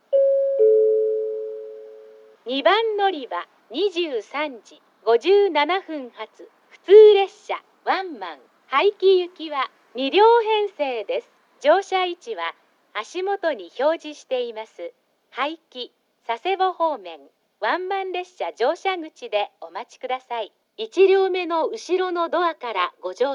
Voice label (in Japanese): 2番のりば案内放送; ハウステンボス; 2番のりば案内放送（普通ワンマン 早岐行き）; ※無人時間帯の列車